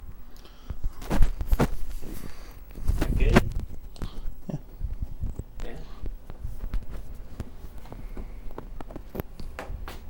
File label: Noise with talking